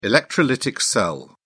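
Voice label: "electrolytic cell", British English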